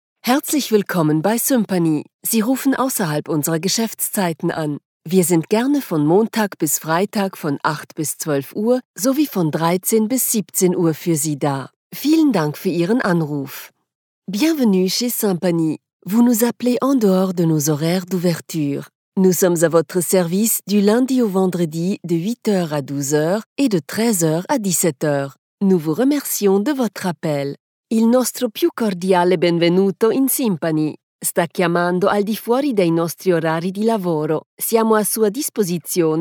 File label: Telephone System DE FR IT EN; Sympany